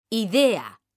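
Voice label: "idea", Spanish, female; mekanta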